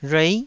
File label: "rhuei9", taiwanese